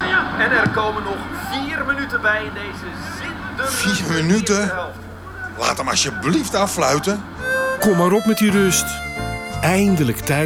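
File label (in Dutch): Radio Snacking Voetbal, Voice-over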